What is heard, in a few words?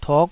"tuk5", taiwanese